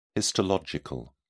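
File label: "histological", British English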